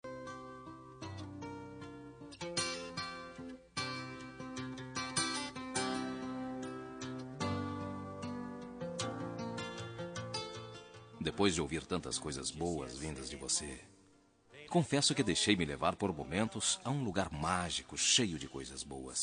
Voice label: Voz Masculina, Telemensagem; Telemensagem Resposta; Sim Aceito; aceito conhecer

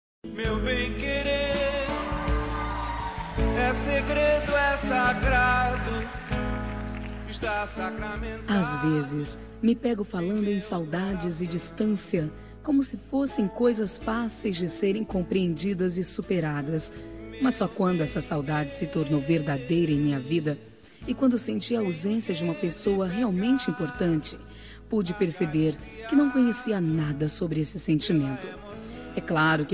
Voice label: irma distante, Telemensagem Aniversário de Irmã Distante, Voz Feminina; Telemensagens para todas as ocasiões

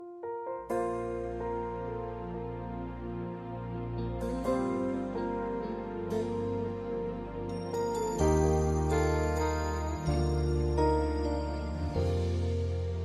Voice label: adotiva fem, Voz Feminina; Dia das Mães – Para Mãe Adotiva – Voz Feminina; Telemensagens

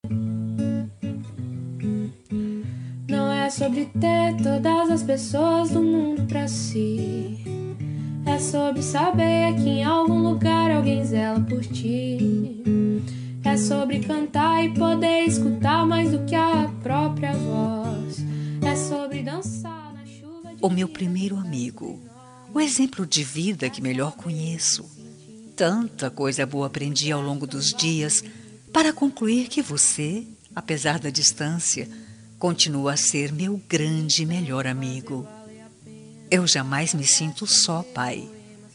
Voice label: linda fem distante, voz feminina linda; dia dos pais

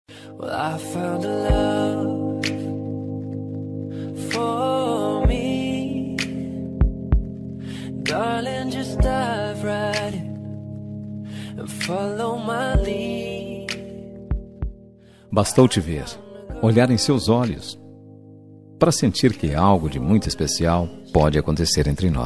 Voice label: paquera mas, voz masculina; Dia dos Namorados; Conquista

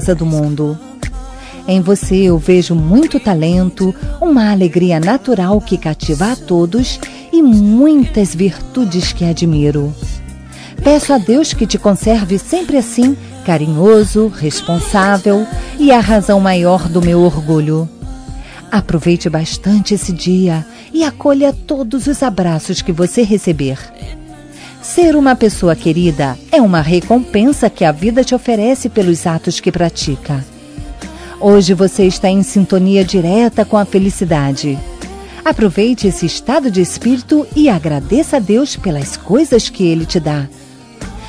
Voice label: aniv filho fem, Voz Feminina; Aniversário de Filho; Telemensagens para todas as ocasiões

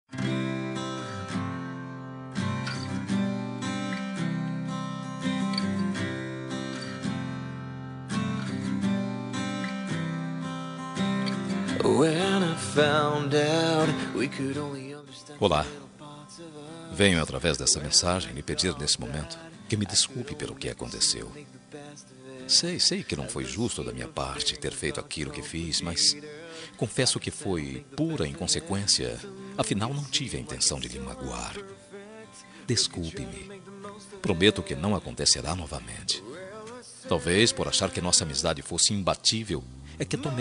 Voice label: desculpa masc neutra, Telemensagem de Desculpas Geral – Voz Masculina

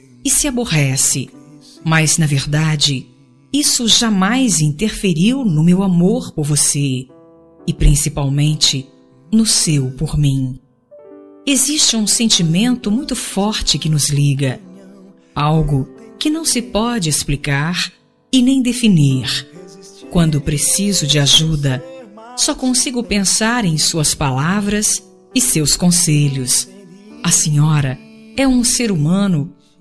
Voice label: homenagem mae fem, Homenagem para Mãe – Voz Feminina

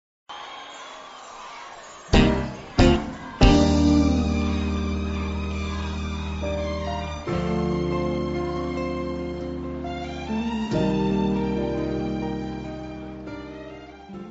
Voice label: Telemensagem Dia dos Namorados para Marido; dn-marido

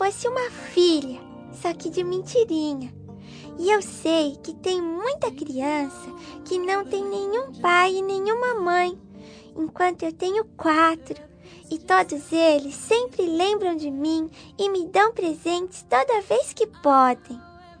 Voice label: voz infantil padrinho fem, Aniversário Voz Infantil Padrinho – Voz Feminina

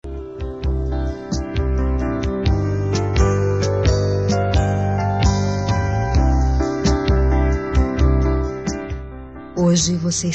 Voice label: Voz Feminina; Aniversário de Sobrinho

